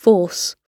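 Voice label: "force", British English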